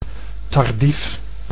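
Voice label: "tardief", Dutch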